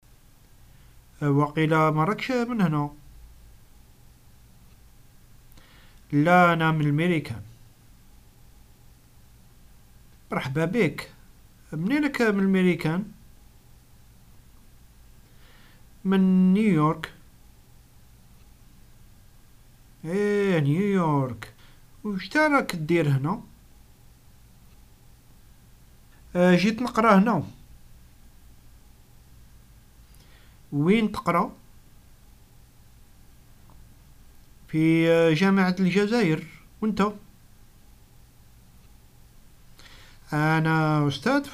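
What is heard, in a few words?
personal background, algerian arabic dialogues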